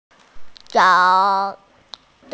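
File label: "cao3", Mandarin Chinese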